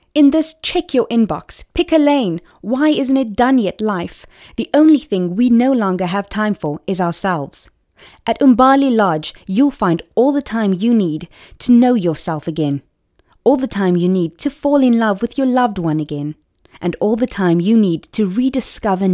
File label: soft sell